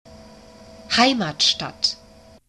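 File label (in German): "Heimatstadt", German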